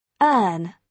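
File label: "earn", British English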